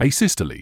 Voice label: "asystole", medical english uk; ay-SISS-tuh-lee; eɪˈsɪs.tə.liː

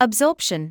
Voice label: "absorption", English

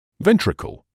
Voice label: "ventricle", medical English

